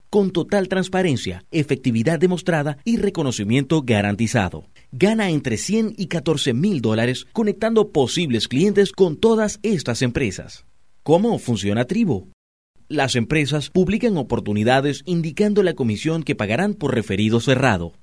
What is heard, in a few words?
SPANISH